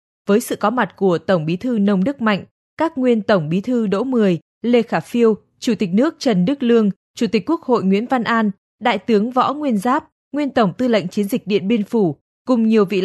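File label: Vietnamese, female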